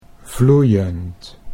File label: "vloeiend", Dutch